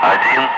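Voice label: "5", spoken; Ka-50; Wingman; Digits; DCS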